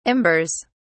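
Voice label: "embers", British English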